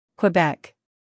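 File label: "Quebec", American English; sounds-of-american-english; everyone-can-use-english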